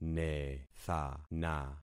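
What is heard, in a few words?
"nethana", concatenated syllables; Affixing experiment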